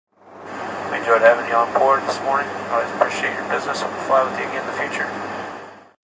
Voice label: Pilot Goodby, An airline pilot says his polite goodbye to passengers after la; An airline pilot says his polite goodbye to passengers after landing; Airplanes